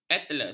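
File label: "atlas", Malay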